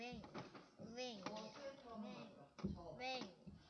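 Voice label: "ring", spoken word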